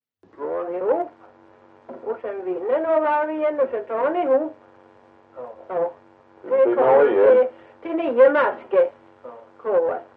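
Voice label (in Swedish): Ventlinge Öland, dialekter; Folkminnen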